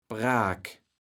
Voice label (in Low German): "Braak", kaevels platt